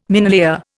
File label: "minelayer", Indian